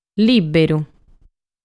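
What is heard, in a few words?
"Lìberu", Sardinian word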